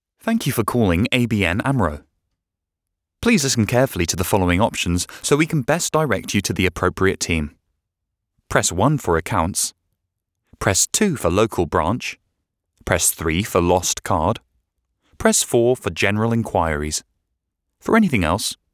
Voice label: IVR; ABN Amro